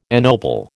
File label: "ennoble", American